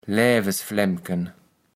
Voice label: "Lävesflämken", kaevels platt

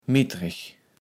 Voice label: "mittreg", Platt

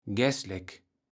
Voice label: "Gäslek", Platt

